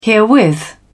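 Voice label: "herewith", British English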